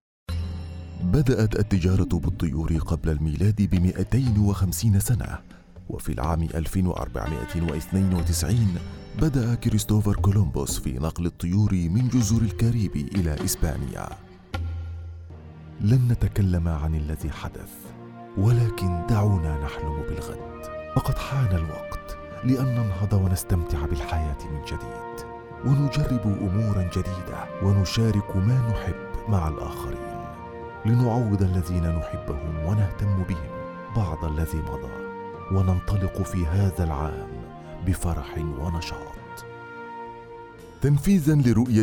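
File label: Narrative reel Arabic MSA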